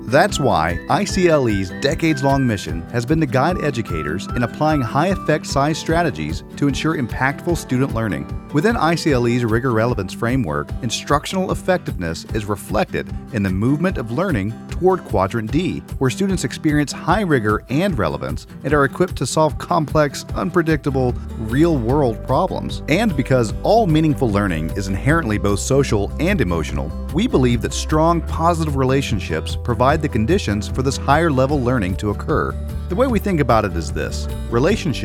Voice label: Voice Over Sample